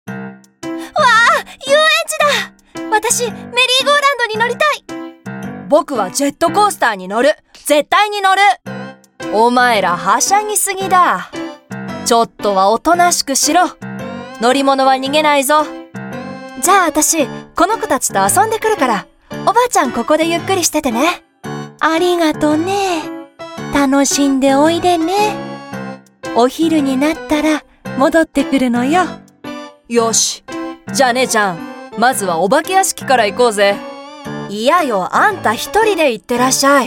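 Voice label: Japanese female Anime 4 type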